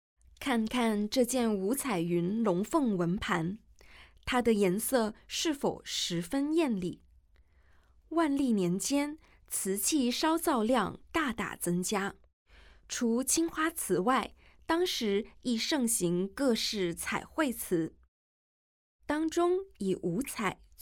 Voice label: Mandarin

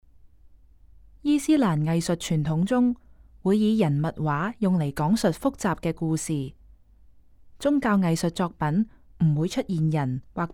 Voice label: Cantonese, audio guide; 薩法維王朝蘇萊曼沙阿一世「打獵」圖地毯; 大布里士，薩法維伊朗; 約1610年

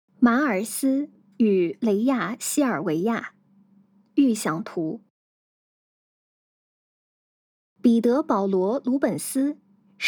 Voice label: Putonghua

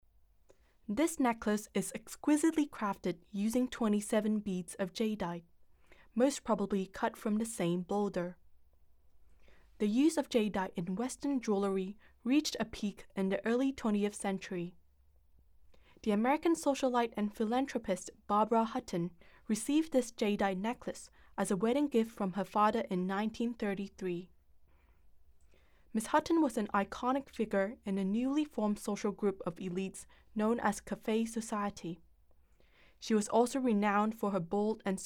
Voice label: English, audio guide

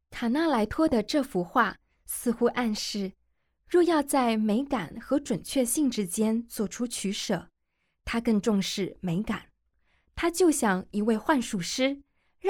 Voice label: Mandarin, audio guide; g9-botticelli-to-van-gogh

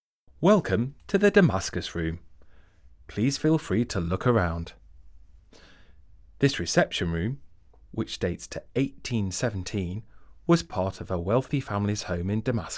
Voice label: English, audio guide; Exploring the Damascus Room; multimedia installation

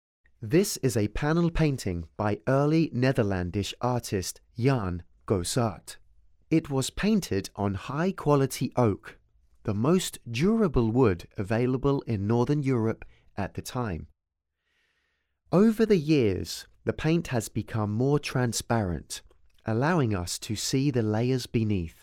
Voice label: English, audio guide

